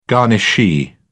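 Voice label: "garnishee", British English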